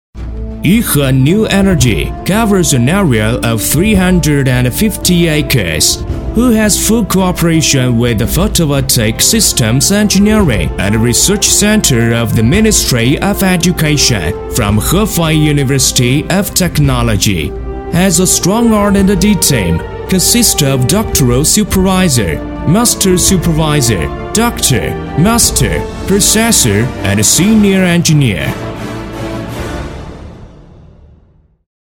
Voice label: 英男, 大气, 铿锵有力

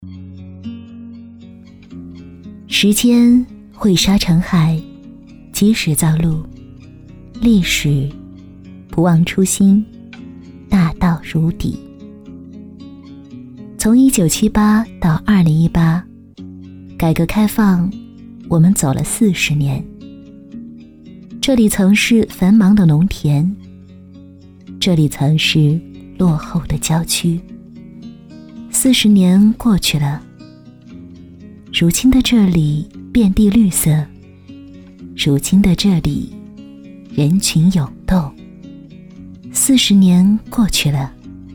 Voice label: 女, 旁白; 南湖旁白

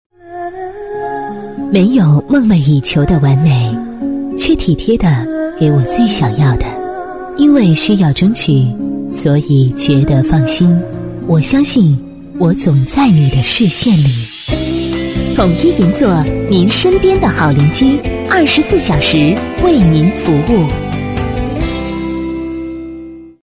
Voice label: 国语女声配音，地产类; 优雅配音; 统一银座

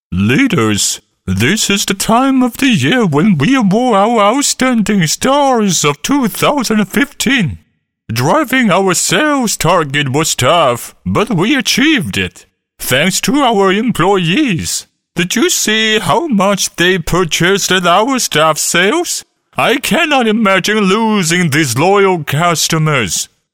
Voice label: 英男 角色 奇葩声音 适合用于恶搞和外星人等角色

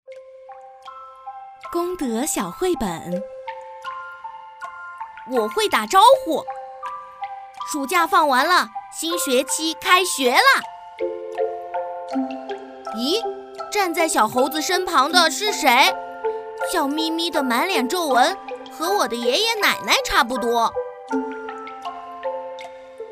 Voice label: 儿童读物, 绘本小故事

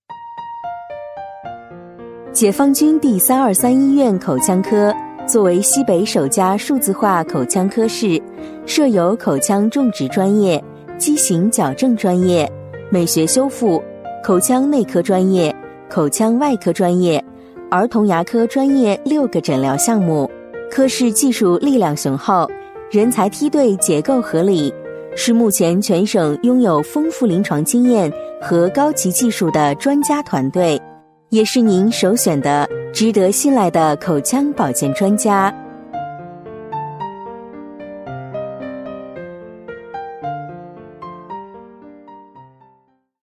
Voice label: 女 广告, 国语女声配音; 解放军323医院

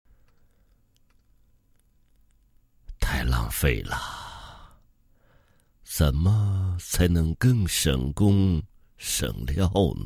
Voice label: 人物模仿 低沉磁性, 国语男声配音; 毕昇

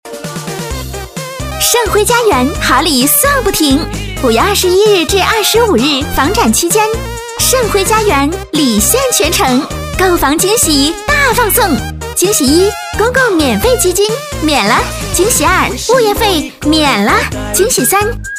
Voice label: 女 广告 激情, 国语女声配音; 晟晖家园

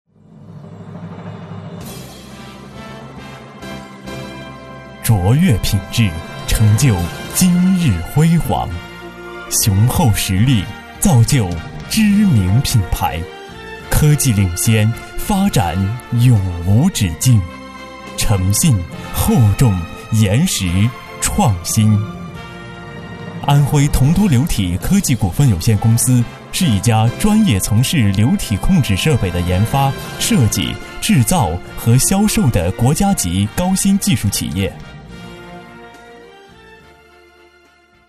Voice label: 男 专题 公司, 国语男声配音; 安徽铜都流体公司

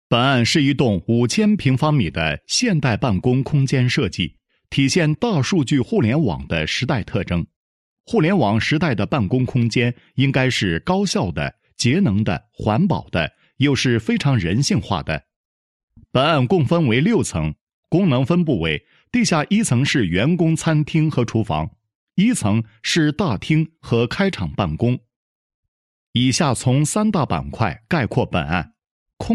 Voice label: 男 工程方案; 商务办公楼方案设计

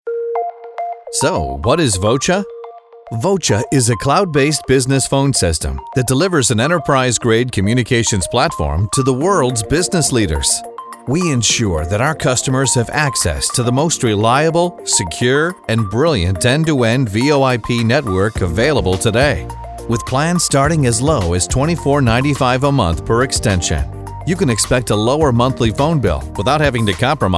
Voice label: 外籍男 解说 Narration; Voce

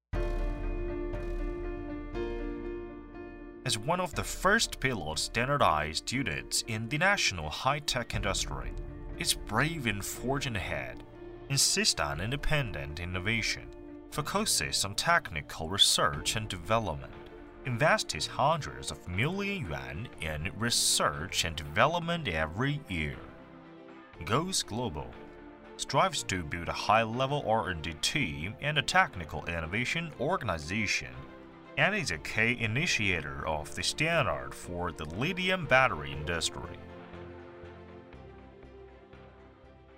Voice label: 英文宣传片, 大气正式

